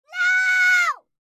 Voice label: Child screams nooo